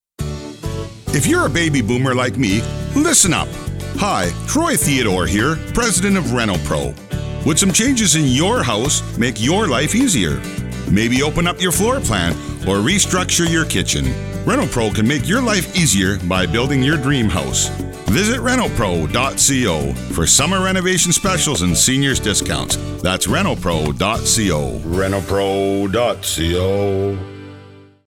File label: Baby Boomers with music